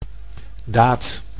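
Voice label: "daad", Dutch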